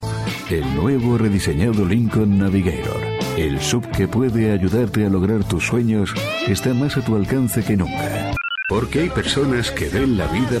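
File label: Spanish, male, commercial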